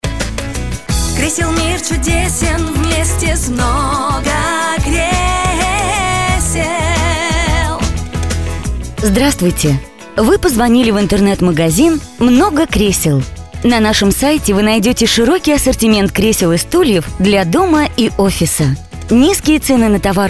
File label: golosovoe privetstvie ivr; Avtootvetchik